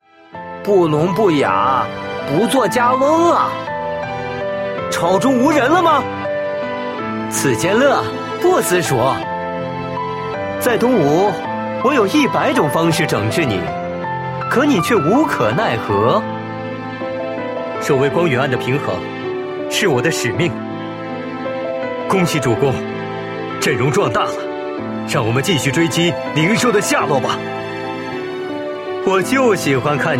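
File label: male young adult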